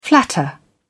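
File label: "flatter", British English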